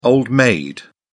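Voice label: "old-maid", British English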